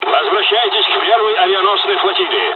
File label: jpn first wave fly away, dialogs russian; War Thunder